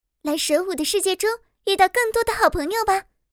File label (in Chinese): 少女 元气